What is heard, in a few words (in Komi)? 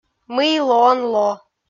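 "мый лоан ло", Komi, female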